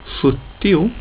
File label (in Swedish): "sjuttio", Swedish; getal